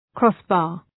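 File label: "crossbar", English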